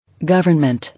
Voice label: "Government", English